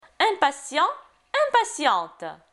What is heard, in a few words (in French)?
"impatient, impatiente", francais; Leçon9. Des gros problèmes